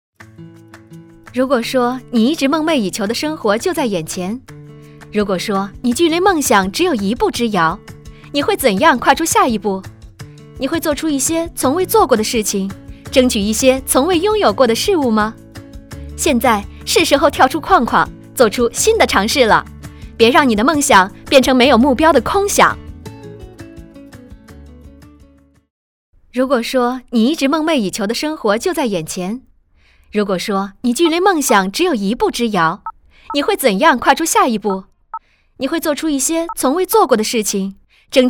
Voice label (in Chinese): 广告阳光活泼风格; 喜马拉雅币